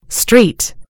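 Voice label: "street", American English; gcompris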